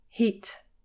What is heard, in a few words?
"heat", english pronunciation